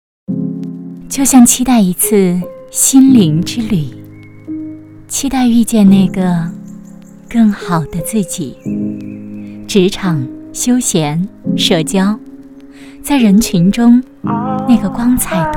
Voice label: 宣传; 美吾悦期待一次心灵之旅

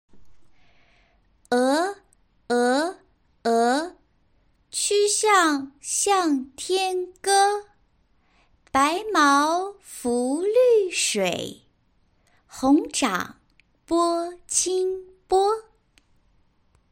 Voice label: 鹅 儿童版

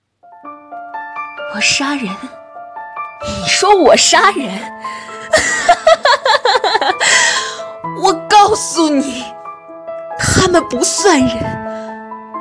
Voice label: 病娇

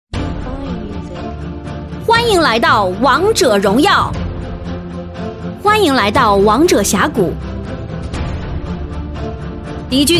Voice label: 系统音; 王者系统音

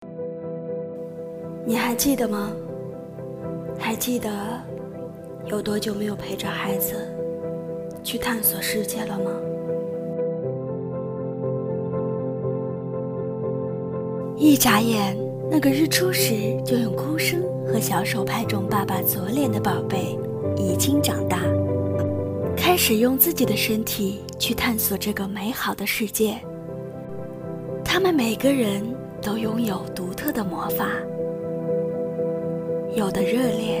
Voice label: 拒绝语言暴力公益公告片段

